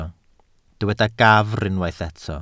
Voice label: "gafr", Welsh